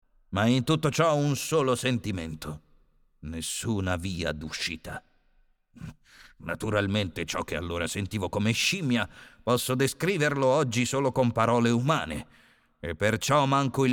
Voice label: italian impersonations